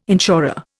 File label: "insurer", Indian